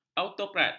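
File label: "autokrat", Malay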